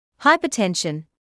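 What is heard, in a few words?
"hypertension", British English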